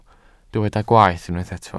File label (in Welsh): "gwaeth", Welsh